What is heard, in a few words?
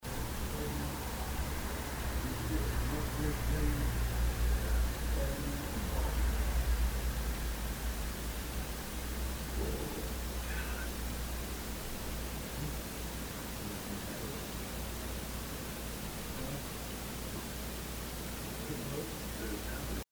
Male voice whisper; Case 021 Zepp Home; Daughters Room